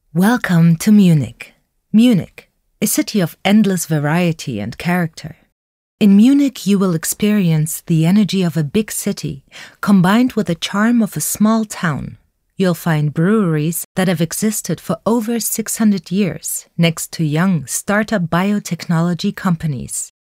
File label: EN audioguide; Munich